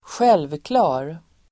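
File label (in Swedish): "självklar", Swedish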